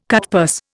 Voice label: "cutpurse", Indian

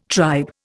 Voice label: "tribe", Indian

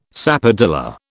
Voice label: "sapodilla", British

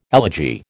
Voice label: "elegy", American

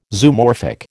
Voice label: "Zoomorphic", American

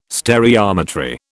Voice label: "stereometry", British

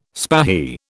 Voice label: "spahi", British

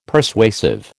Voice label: "Persuasive", American

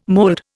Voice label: "mold", Indian

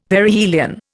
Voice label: "Perihelion", Indian; Dictionary

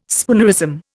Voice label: "spoonerism", Indian

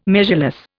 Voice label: "measureless", Indian

